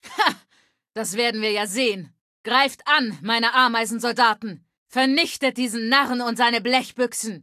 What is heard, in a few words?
Female adult default battle; Kategorie:Fallout 3: Audiodialoge